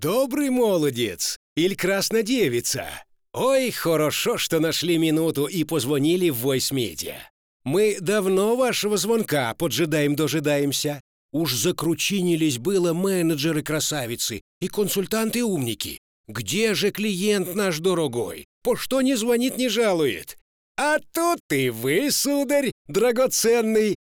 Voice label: IVR